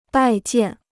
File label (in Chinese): "拜见", Chinese